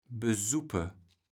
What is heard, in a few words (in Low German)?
"besuppe", kaevels platt